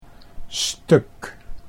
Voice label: "stuk", Dutch

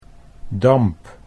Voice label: "damp", Dutch

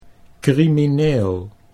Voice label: "crimineel", Dutch

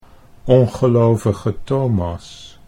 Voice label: "ongelovige Thomas", Dutch

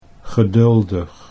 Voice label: "geduldig", Dutch

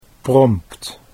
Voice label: "prompt", Dutch

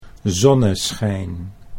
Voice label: "zonneschijn", Dutch

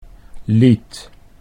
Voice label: "lied", Dutch

